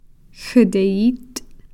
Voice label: "xteyith", Cow Creek; flute